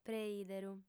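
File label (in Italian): "preìderu", lingua sarda, fem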